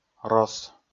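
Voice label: "рос", Komi, male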